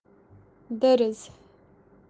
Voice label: "duruz" gap crack, kashmiri